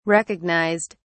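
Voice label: "recognised", British English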